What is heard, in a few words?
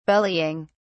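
"bellying", British English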